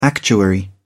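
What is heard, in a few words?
"actuary", English, British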